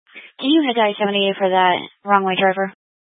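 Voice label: Incident Tac 3, Scanner audio recording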